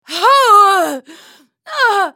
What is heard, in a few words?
dying, en US; Sejuani; lol; character voices - english